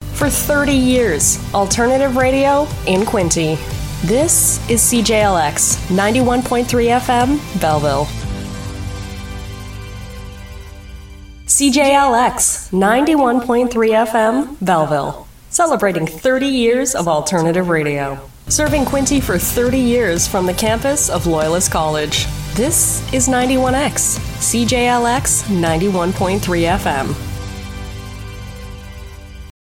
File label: FM Imaging